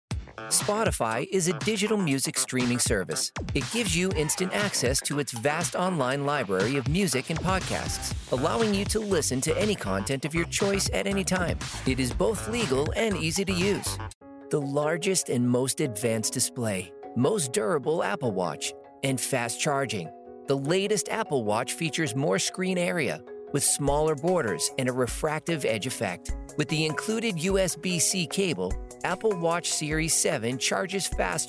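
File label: narration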